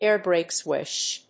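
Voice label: "air break switch", English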